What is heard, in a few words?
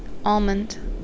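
"almond", American, female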